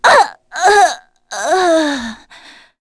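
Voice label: Vox Dead, English; kingsraid; voices heroes en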